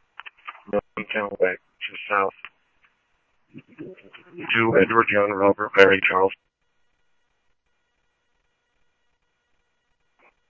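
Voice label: police radio dispatch, Scottsdale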